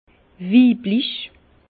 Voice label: "weiblich", Bas Rhin; féminin; vin

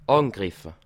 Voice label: "angriffe", alsace

